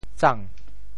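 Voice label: "tsang2", Teochew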